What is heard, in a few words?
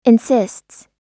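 "insists", US English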